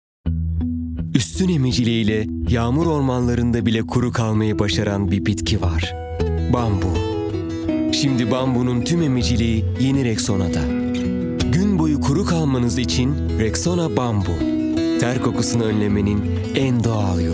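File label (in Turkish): sicak sakin, seslendirme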